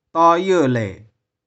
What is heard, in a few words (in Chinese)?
"tau3ieu2le0", 玉環